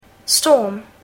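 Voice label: "storm", English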